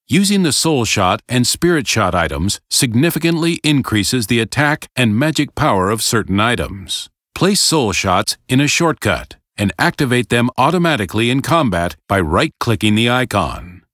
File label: tutorial voice; lineage2